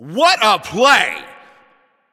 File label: Goal Scored, Announcer